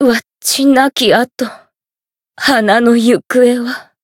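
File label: Voice retire Japanese; hinagiku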